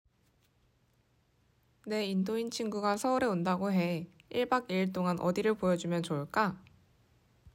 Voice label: korean prompt; aya expanse